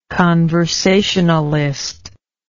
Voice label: "conversationalist", English